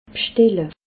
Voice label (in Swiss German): "bstelle", alsace